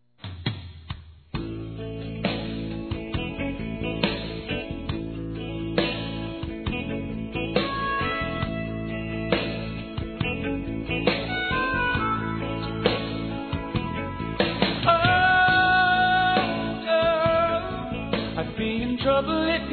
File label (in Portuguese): CUNHADA F; Aniversários; Aniversários diversos; fem